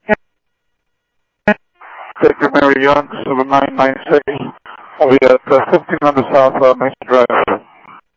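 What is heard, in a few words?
Simulcast police department, scanner audio trunk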